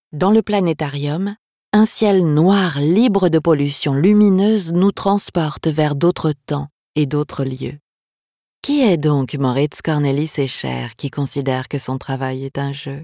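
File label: French corporate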